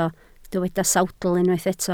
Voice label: "sawdl", Welsh